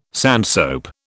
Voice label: "sandsoap", British

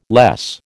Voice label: "less", American

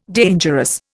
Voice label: "dangerous", Indian

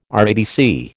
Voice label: "RADC", American

